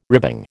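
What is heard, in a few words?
"ribbing", American English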